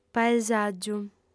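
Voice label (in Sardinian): "paesàgiu", female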